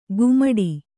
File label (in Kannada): "ಕುಮಟಿ", Kannada